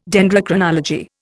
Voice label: "Dendrochronology", Indian

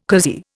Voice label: "cozy", Indian

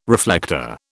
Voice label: "reflector", British

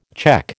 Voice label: "cheque", American

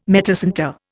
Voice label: "metacentre", Indian

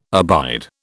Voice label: "abide", British

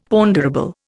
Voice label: "ponderable", Indian; Dictionary

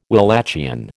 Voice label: "Walachian", American

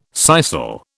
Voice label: "sisal", British

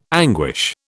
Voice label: "anguish", British

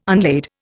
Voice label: "unlade", Indian

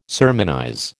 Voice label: "sermonize", American

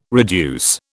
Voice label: "reduce", British

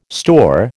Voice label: "store", American

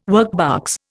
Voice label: "workbox", Indian

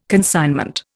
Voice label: "consignment", Indian

